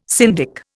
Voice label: "syndic", Indian